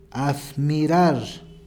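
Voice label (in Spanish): "admirar", Spanish, Peru, Cuzco; [aθmiˈɾaʂ]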